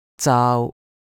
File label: "zaau1" Cantonese